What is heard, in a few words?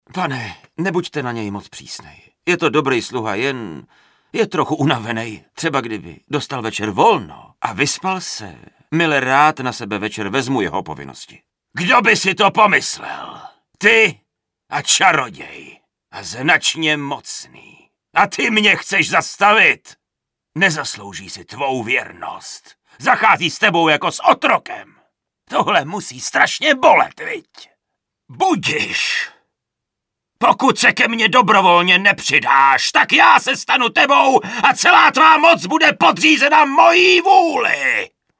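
Male Czech drama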